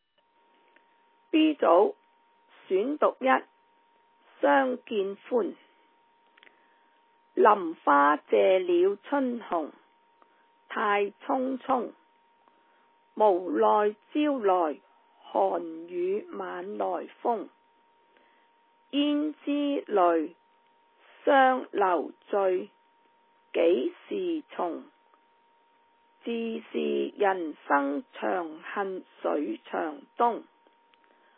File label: Cantonese Read Aloud; 2021 ANCCS 國粵語朗讀稿漢語拼音 Read Aloud Articles